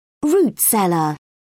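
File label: "root cellar", English, British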